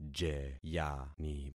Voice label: "jeyani", concat syllables; Affixing experiment

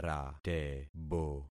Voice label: "ratebo", concat syllables; Affixing experiment

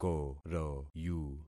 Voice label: "koroyu", concat syllables; Affixing experiment

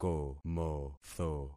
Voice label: "komotho", concat syllables; Affixing experiment

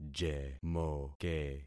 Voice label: "jemoke", concat syllables; Affixing experiment

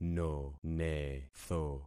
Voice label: "nonetho", concat syllables; Affixing experiment

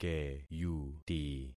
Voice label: "keyuti", concat syllables; Affixing experiment

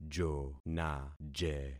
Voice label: "jonaje", concat syllables; Affixing experiment

